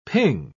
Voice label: "ping", pronounce